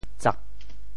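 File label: "tsak4", Teochew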